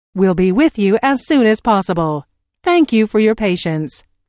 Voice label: Telephone prompt: We will be with you as soon as possible thank you for your patience, Recorded Voice; Recorded Voice Sound Effects